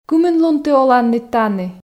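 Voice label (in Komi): кымын лун ті оланныд тані, Komi, female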